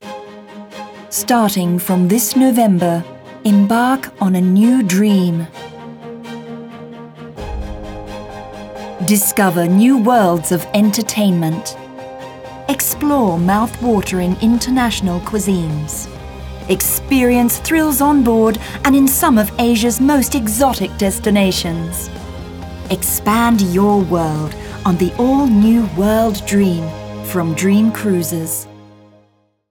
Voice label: 英語女聲; WDR-Eng-Mixing

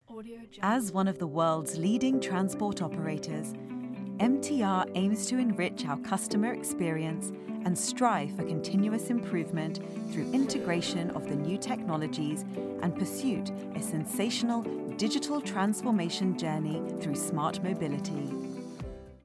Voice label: 英語女聲; MTR UK

